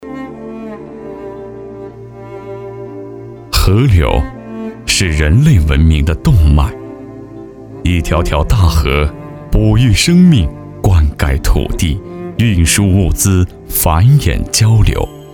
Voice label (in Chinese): 男 纪录片; 河流